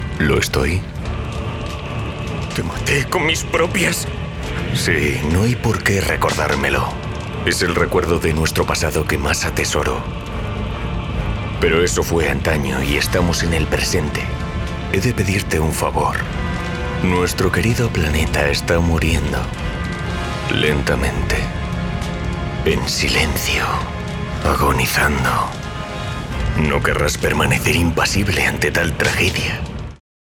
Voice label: acting Videogame EVIL ENEMY FINAL SPEECH low warm age 30 40